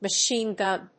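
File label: "machine gun", English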